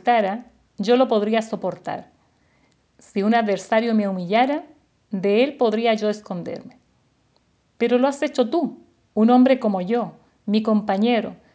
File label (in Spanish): devocional